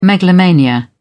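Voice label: "megalomania", British English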